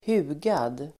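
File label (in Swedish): "hugad", Swedish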